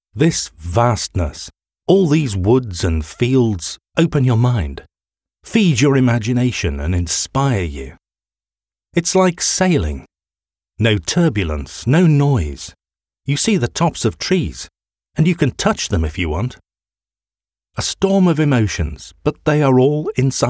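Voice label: English British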